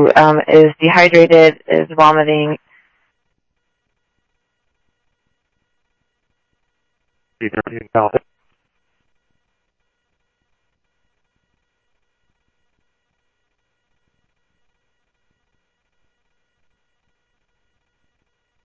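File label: Lakes Sheriff Lake Patrol, scanner audio trunk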